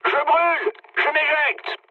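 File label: French on fire mood low; War Thunder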